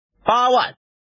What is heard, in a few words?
man